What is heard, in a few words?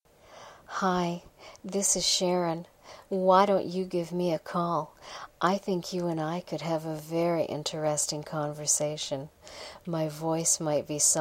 Voice Greeting